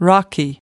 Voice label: "rocky", us